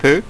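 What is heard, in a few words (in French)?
"peu" French; ipa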